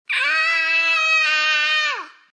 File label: baby rage